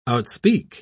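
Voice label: "outspeak", British English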